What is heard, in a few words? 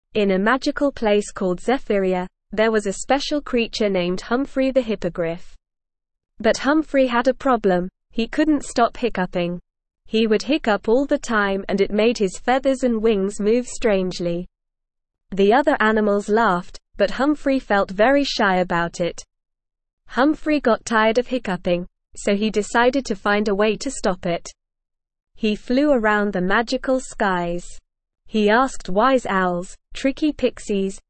Short Stories for Kids NORMAL Reading; The Hiccuping Hippogriff